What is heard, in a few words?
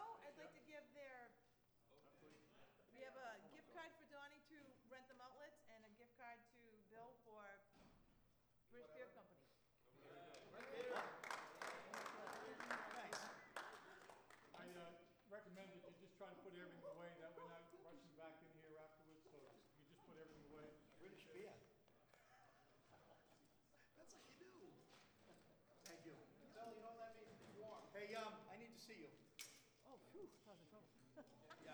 Ending Announcements